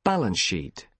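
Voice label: "balance sheet", British English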